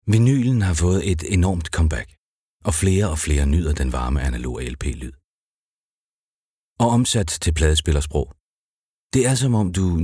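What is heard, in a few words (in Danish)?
Male Danish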